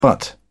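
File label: "butt", English, British English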